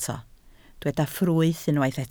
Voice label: "ffrwyth", Welsh